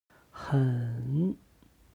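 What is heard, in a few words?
"hen", Chinese; 很; 非常に; HSK Level One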